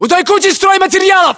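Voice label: landmark near pile lumber, Russian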